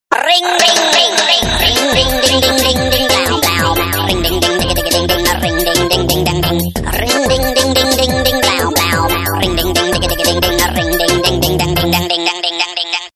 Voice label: ring ring crazy voice